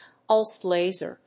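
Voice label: "pulsed laser", English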